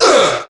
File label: "uh" group of men; ooh ahh; uh um